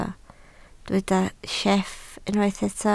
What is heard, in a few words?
"sieff", Welsh